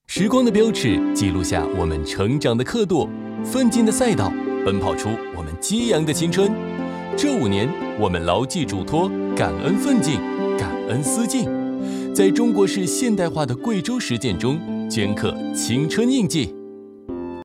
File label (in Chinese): 男 旁白 年轻讲述; 时光的标尺